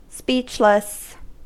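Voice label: "speechless", US English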